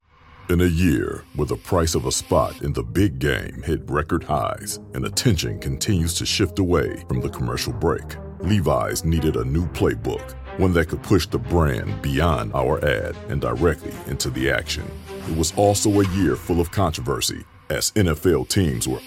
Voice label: english american narration

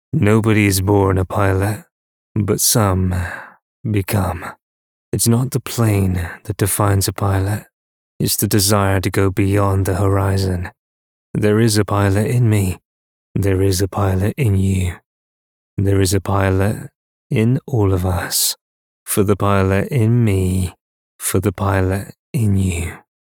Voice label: English British promos

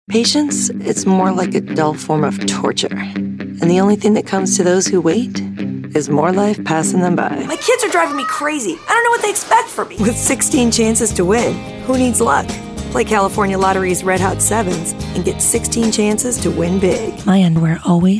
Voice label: english american others

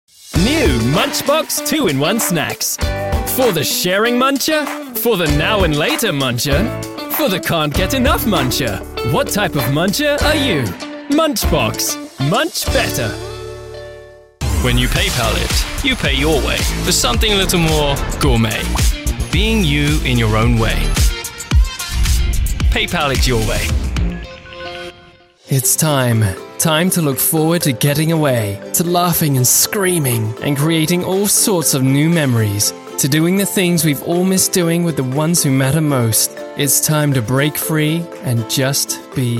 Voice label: english british commercial

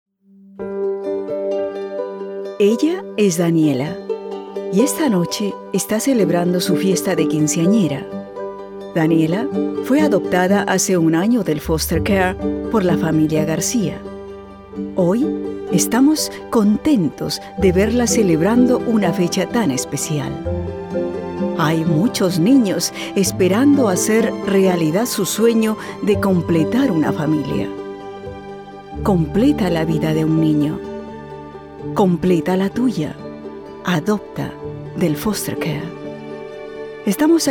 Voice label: spanish peruvian commercial

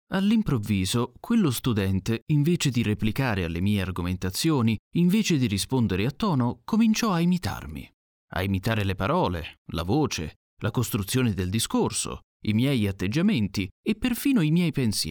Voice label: italian audiobooks

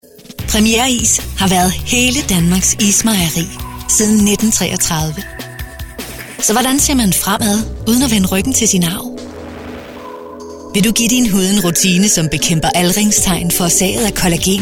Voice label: danish promos

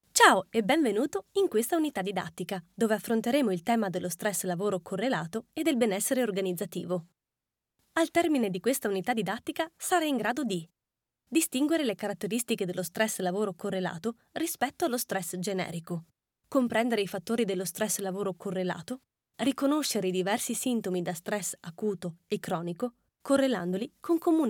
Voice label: Italian e-learning; professionelle italienische Schauspielerin

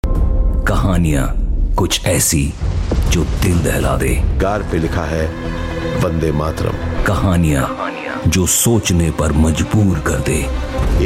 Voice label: hindi commercial; India; Hindi English (Indian); Professional Indian Voice Over Ar; home studio

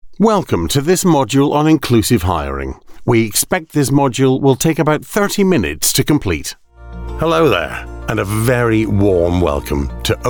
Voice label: english scottish e-learning

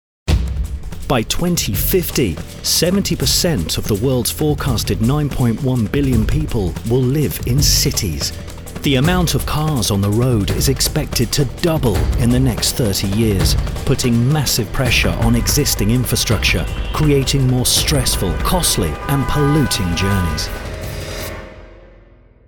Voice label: english british corporate

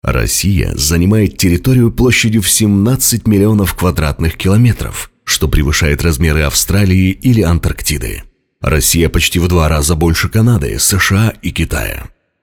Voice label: russian documentaries

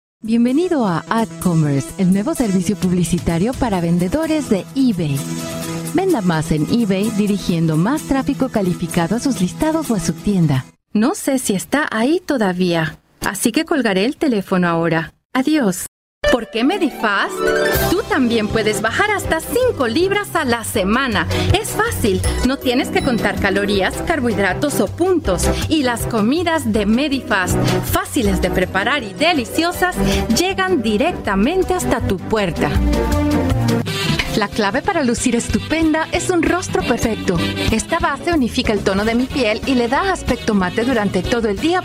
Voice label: spanish latin american commercial

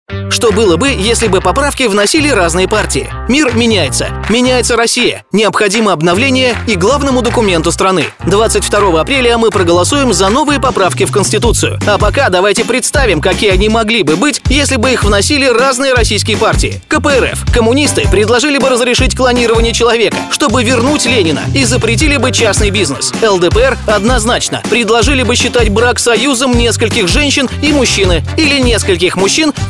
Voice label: russian political ads

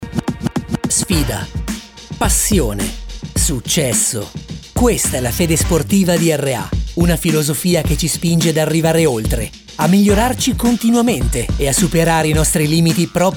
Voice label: italian corporate videos

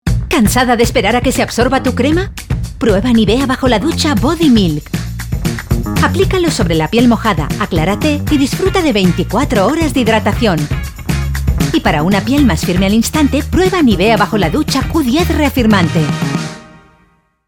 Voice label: spanish radio imaging

